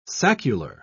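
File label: "saccular", English, British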